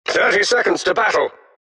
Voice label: announcer count battle 30; dlc defensegrid

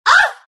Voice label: naga pain; dota; naga siren

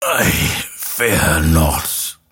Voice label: attack pain; dota; grimstroke

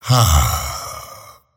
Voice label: happy; dota; dragon knight

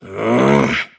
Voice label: anger; davion; dota; dragon knight

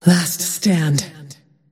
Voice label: attack pain; dota; drowranger

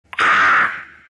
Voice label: pain; Viper

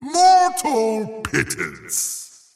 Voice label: lasthit pain; razor

